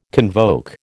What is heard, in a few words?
"convoke", American